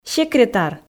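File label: "секретар", Komi, female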